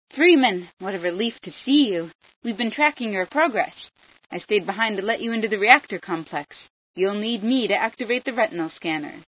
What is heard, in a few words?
female sci